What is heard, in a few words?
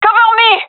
request cover me, fem; vo